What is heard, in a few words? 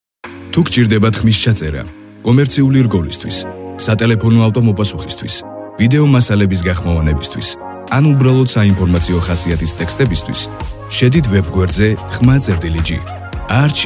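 German, male, commercial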